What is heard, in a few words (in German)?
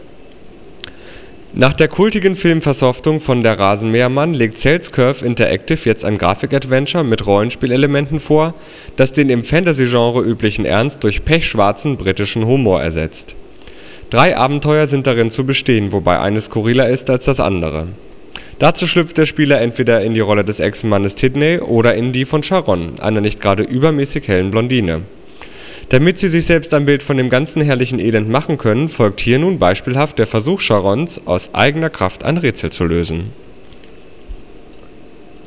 Ansage; kingdom